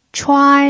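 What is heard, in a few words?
"chuai" first tone, Mandarin Chinese pinyin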